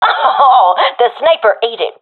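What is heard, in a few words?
i killed sniper, professional female; vo; agents; Source Model Packs; Counter Strike Model Pack; CS2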